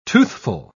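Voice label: "toothful", British English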